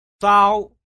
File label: "shao", tiếng trung; che bien